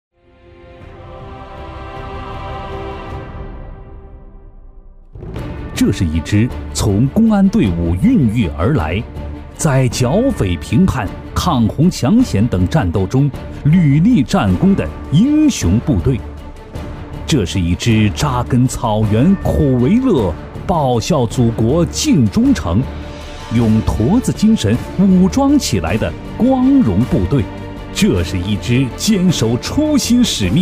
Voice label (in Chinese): 男 公安专题 硬朗大气; 大气浑厚